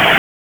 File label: Police Tac, p25; Raleigh; Downtown District Tac 1